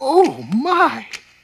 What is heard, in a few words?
"oh my", male; ooh ahh